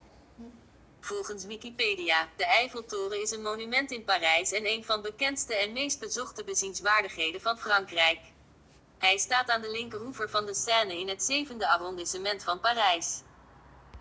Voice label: google assistant nederlands